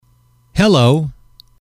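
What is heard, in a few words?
"hello", English, male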